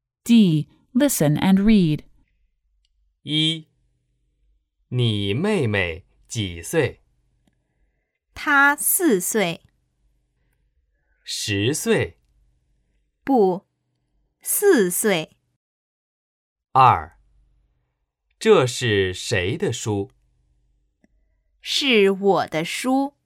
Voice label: Dental Sibilant Initials